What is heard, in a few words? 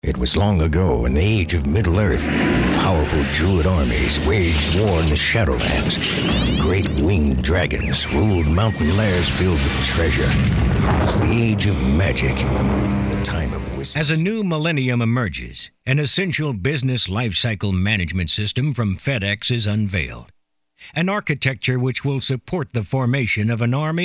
Narr